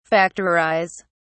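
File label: "factorise", British English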